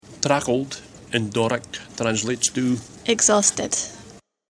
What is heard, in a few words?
exhausted